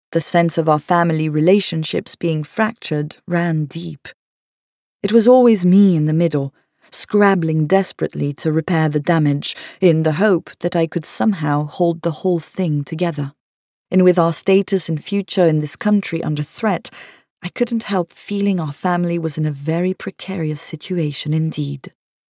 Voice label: Audiobook extract; In Little Stars